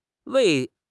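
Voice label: "wei2", Chinese; HSK; HSK3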